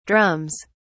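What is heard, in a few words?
"drums", British English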